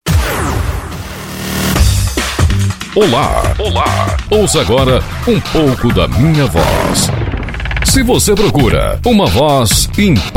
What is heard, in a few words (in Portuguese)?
demo locucao brasil